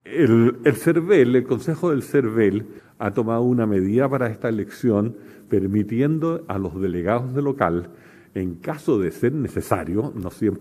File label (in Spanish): cuña